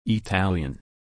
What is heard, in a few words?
Italian